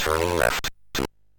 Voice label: MOS6581R3, Electronic Speech Systems